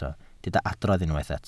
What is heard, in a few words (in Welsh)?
"adrodd", Welsh